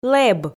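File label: "лэб", Komi, female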